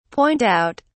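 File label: "point out", British English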